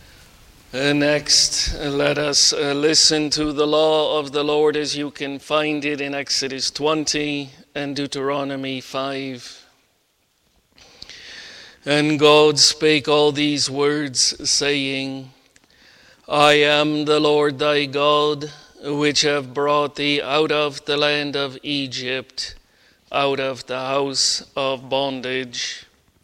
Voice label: Bible Reading; The Lord's Work of Preparation